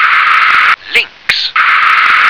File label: "lynx", English